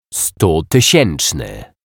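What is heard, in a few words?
"stutysieczny", Polish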